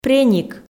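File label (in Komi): "преник" Komi, female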